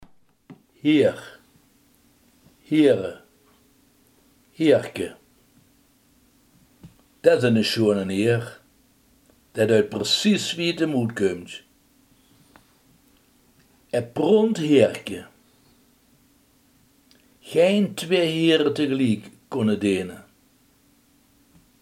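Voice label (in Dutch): heer, Limburgse gesproken woordenboeken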